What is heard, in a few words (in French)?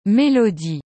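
"melodie", French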